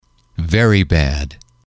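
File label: "very bad", English, male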